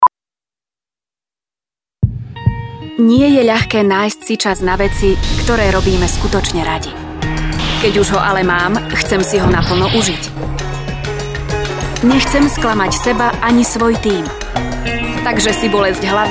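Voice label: Female Slovak commercial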